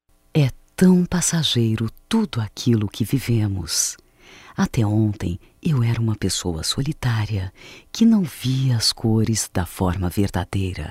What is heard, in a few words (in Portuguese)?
Amar Você Feminino, Só Voz, MOMENTOS DE AMOR; Telemensagens